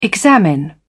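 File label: "examine", British English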